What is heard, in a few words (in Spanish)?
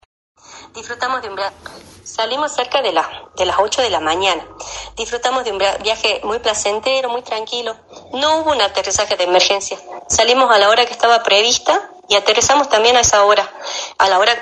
Pasajera globo testimonio